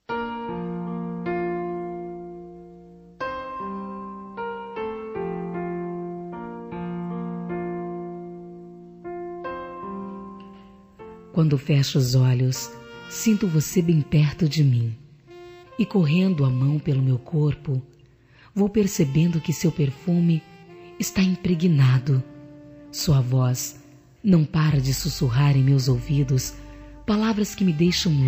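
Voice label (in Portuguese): picante feminina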